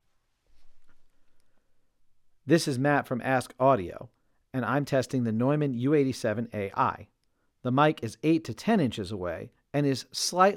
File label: u87ai off axis